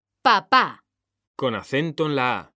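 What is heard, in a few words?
"papá", Spanish, female; mekanta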